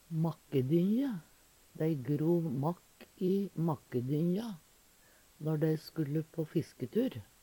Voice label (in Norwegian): "makkedynje", Numedalsmål; Substantiv hokjønn; Veggli